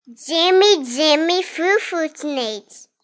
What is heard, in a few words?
Kid Gibberish, Kid talking; Voice; Talking Sound Effects